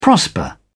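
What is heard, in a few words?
"prosper", British English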